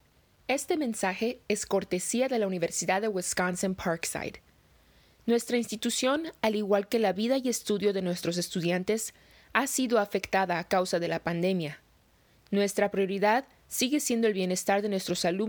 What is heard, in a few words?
Spanish Message; Parkside